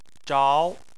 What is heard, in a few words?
"zhao2", Chinese